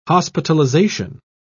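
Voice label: "hospitalisation", British English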